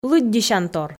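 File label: "лыддьысянтор", Komi, female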